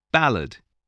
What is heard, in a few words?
"ballad", english